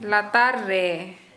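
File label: "beneath", Santali, female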